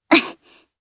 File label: Landing, jp; voices; heroes; kings raid